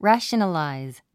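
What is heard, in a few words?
"rationalize", English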